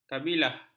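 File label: "kabilah", Malay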